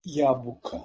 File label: "jabuka" Bosnian